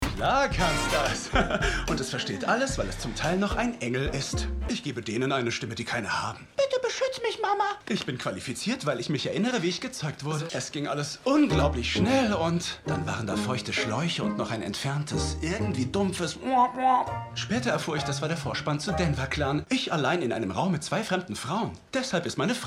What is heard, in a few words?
Lip-Sync (Synchron), Comedy, German; Girls5Eva - "Chuck Dennis" (John Early); LOFT VOICES